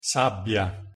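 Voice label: "sabbia", pronunciation, Italian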